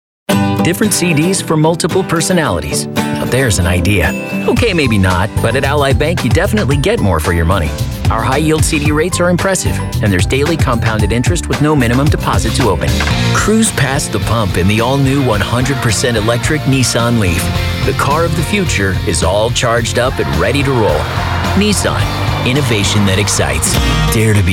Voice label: Commercials